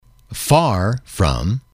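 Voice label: "far from", English, male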